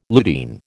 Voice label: "lutein", American